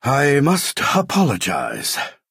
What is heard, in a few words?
Dunmer male sorry